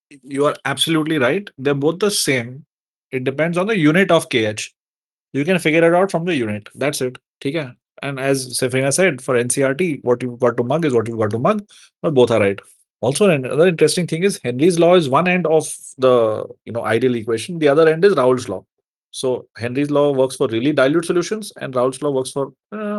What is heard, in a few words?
voice message; doubt regarding henrys law